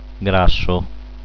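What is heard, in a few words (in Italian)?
"grasso", Italian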